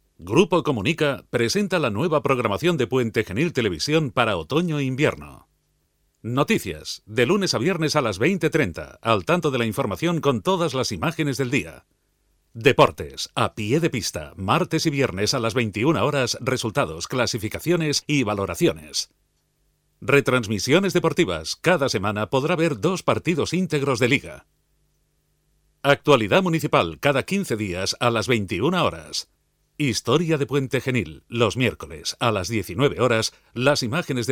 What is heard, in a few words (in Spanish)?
locutor promo television